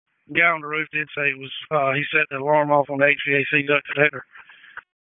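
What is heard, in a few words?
FIRE Operations, p25; FIRE OPS 1; wake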